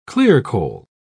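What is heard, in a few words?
"clearcole", English, uk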